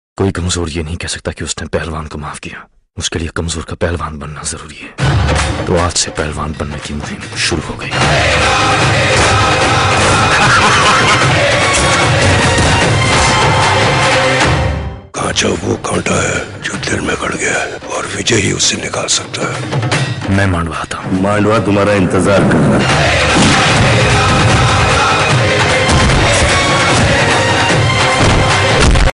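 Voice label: Dialogues Ringtone; Koi Kamjor; Hrithik; Agneepath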